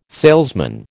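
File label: "salesman", American English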